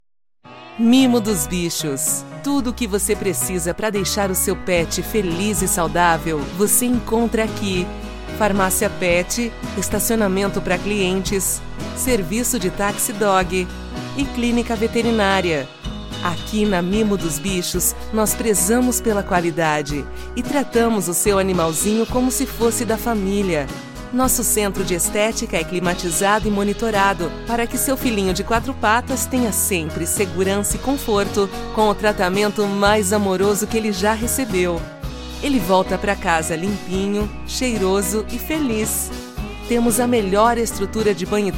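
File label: F, Portuguese Brazil, female, narration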